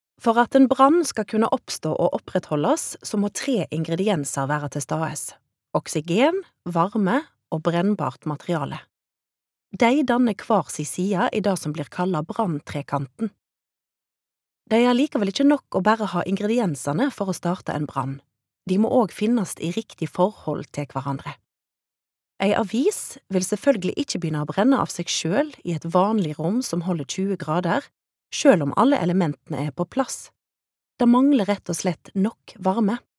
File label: Norwegian e-learning, norwegian female